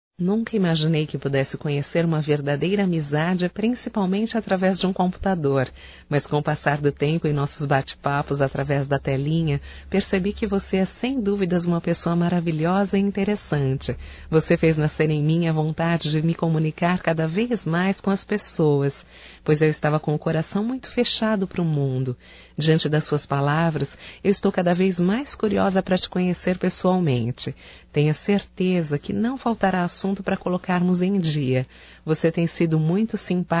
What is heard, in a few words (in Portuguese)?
Aniversário Amigo Virtual Feminino, Telemensagens, Só Voz; Telemensagens 2017